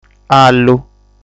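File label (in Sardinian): "Allu", Sardinian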